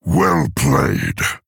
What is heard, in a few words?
Male Orc Well Played; Thrall